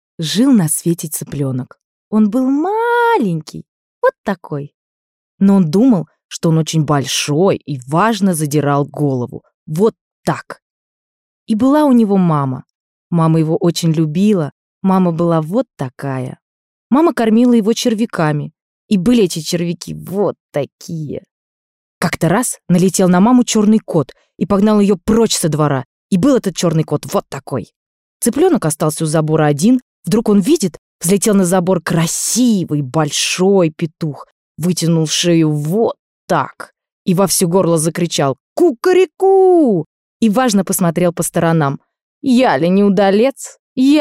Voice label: rus

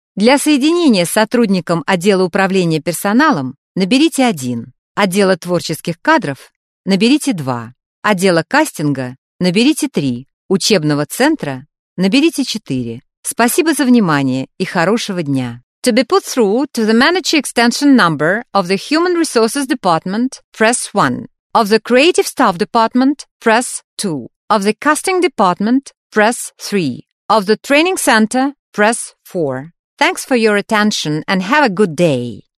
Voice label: ivr

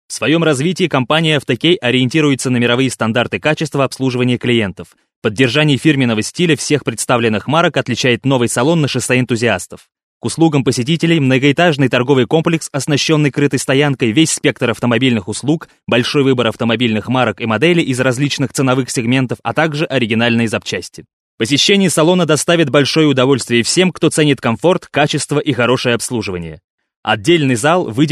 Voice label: russian male

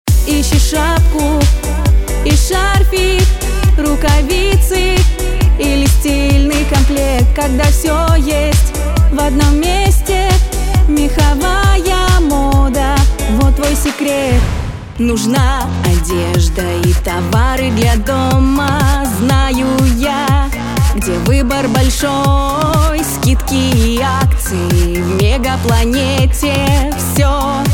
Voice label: RUS

